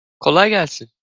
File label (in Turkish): "kolay gelsin", Turkish